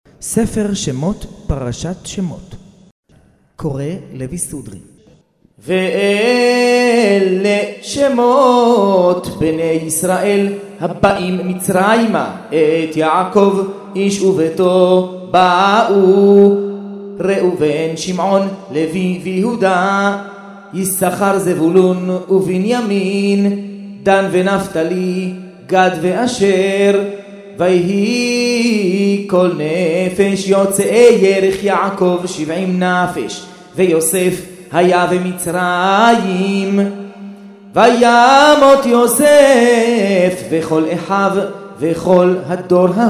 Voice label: recorded tanach shemot sefardi moroccan; Houmach Chemot avec Haftarot sur rite Séfarade Marocain

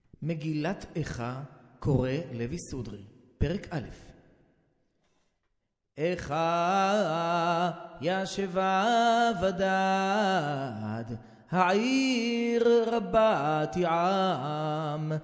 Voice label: eicha ashkenaz

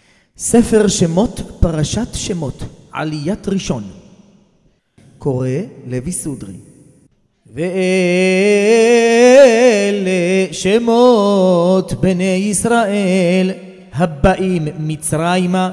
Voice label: recorded tanach parshat shemot sefardi yerushalmi; Paracha Chemot sans Haftara sur rite Séfarade Jérusolomit

